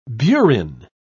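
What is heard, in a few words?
"burin", British English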